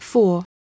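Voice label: "four", English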